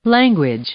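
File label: "language", English, female; noun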